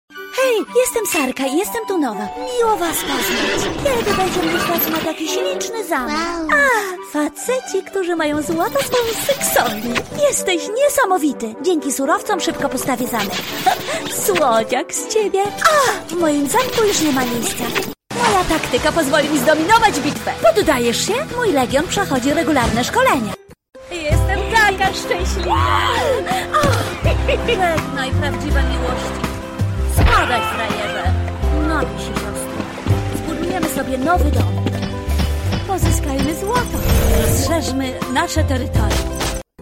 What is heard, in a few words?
game charakter polish mix